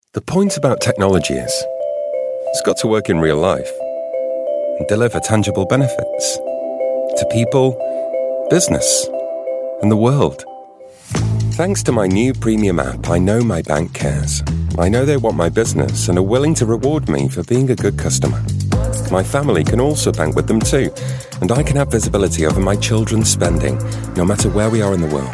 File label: corporate